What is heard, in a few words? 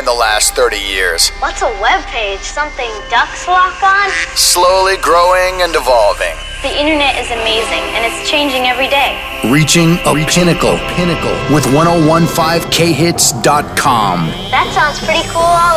Imaging Classic Hits